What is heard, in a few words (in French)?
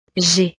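"jai", French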